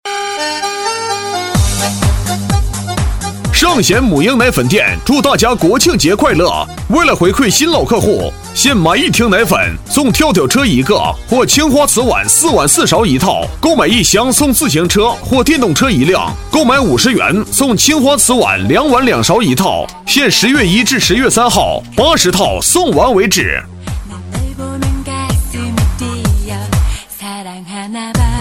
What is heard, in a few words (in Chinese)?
促销 母婴奶粉男 激情